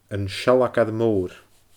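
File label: "An Sealgair Mòr", Scottish Gaelic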